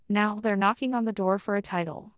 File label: baseline conversational female